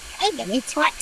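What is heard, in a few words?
voice acting, es, female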